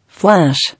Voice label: "Flash", English

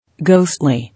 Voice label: "Ghostly", English

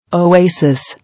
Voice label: "Oasis", English

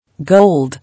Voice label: "Gold", English

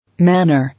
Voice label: "Manner", English

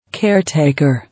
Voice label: "Caretaker", English

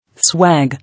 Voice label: "Swag", English

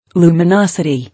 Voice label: "Luminosity", English

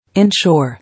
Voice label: "Inshore", English

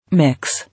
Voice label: "Mix", English

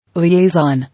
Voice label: "Liaison", English

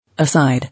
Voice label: "Aside", English

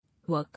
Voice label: "Work", English

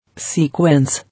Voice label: "Sequence", English

